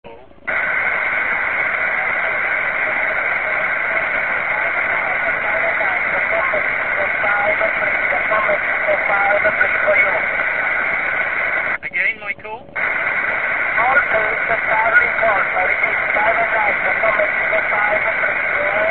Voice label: qso